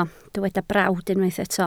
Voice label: "brawd", Welsh

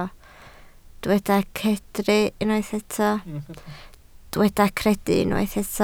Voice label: "credu", Welsh

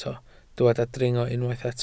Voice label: "dringo", Welsh

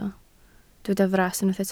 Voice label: "fras", Welsh

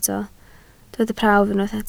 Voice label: "prawf", welsh

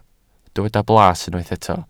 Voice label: "blas", welsh

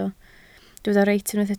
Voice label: "reit", Welsh

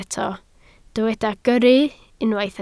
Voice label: "gyrru", Welsh

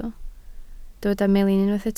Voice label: "melin", Welsh

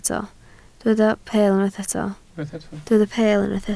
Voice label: "peel", Welsh

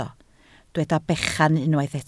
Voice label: "bechan", Welsh

